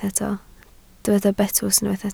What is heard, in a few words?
"betws", Welsh